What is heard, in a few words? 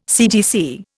"CTC", Indian